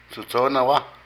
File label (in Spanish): Proposito, Intencion, Zapoteco de Petapa; Cognición; Vocabulary